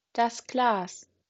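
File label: "Glas", German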